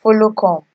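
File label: "follow come", Nigerian Pidgin, female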